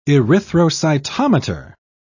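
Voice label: "erythrocytometer", British English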